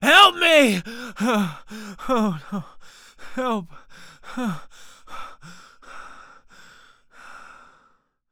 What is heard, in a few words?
Panic; zps; Humans; HumanMale-01